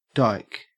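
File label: "dike", Australian English; pronunciations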